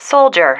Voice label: "soldier", female